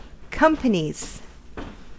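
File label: "companies", US English; pronunciations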